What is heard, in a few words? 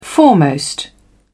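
"foremost", British English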